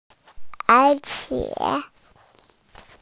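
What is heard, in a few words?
"moreover", Mandarin Chinese, female; er3qie3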